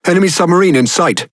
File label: Speech Detection Enemy Sub, EN GB; World Of Warships